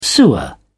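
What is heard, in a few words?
"sewer", British English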